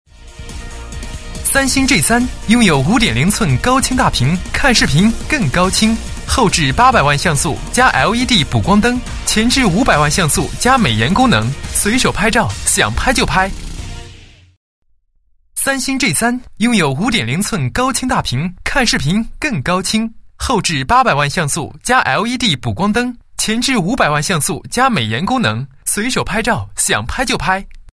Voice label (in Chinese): 男 产品介绍; 手机终端型号介绍